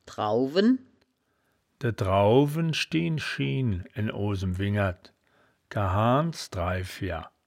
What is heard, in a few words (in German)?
"drauwen", woerterbuch, Wittlich